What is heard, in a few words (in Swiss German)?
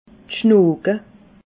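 "schnooge", Alsatian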